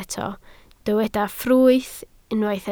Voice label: "ffrwyth", Welsh

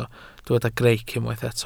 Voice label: "greic", Welsh

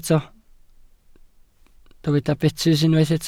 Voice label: "betys", Welsh

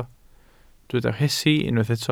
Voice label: "rhesi", Welsh

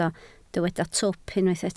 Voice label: "twp", Welsh

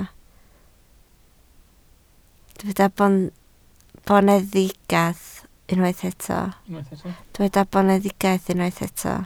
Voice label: "boneddigaidd", Welsh

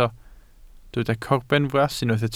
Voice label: "corbenfras" Welsh

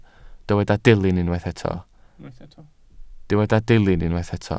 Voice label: "dilyn" Welsh

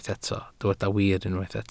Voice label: "wir" Welsh

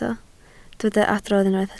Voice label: "adrodd", Welsh

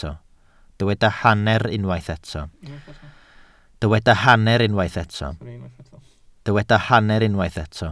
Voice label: "hanner", Welsh

